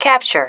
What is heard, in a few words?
"capture", female